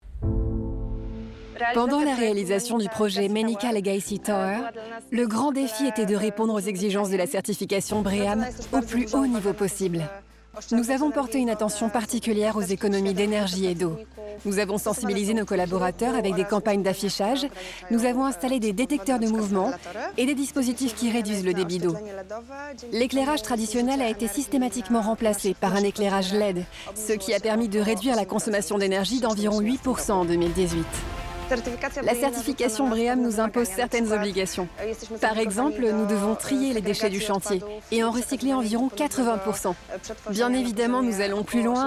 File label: voice over; VINCI